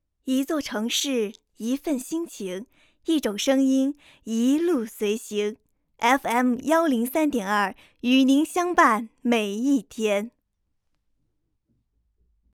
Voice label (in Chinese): 音频电台